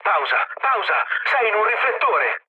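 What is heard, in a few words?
Italian searchlite mood low, speech; War Thunder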